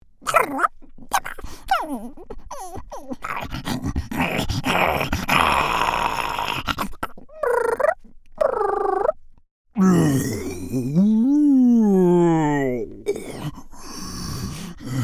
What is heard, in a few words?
Creature